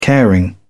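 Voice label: "caring", British English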